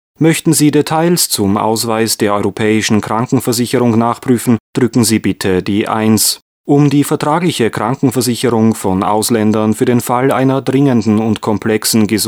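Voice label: Male German IVR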